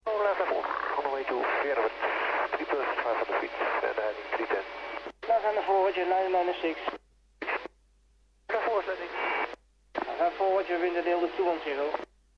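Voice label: lifeliner scrambled feerwerd groningen tower 118.7 MHz; ehgg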